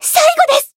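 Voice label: finish, Japanese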